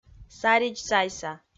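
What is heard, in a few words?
"саридзсайса", Komi, female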